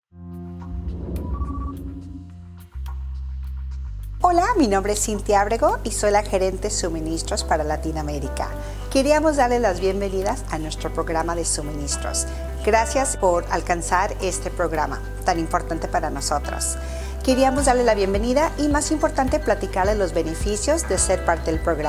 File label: spanish; zna specialization program